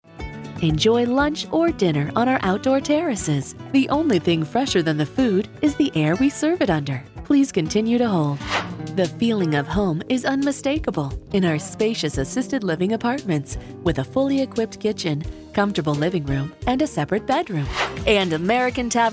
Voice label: female